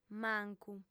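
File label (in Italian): "mancu", lingua sarda, fem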